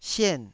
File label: "sien4", Taiwanese syllable